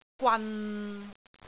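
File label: "gwan6", Cantonese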